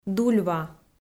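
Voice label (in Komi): "дульва", Komi, female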